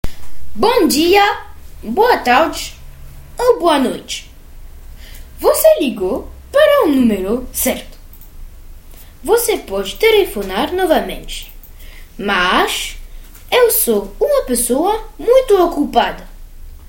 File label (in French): repondeur